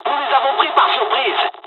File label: japanese first wave, dialogs french; War Thunder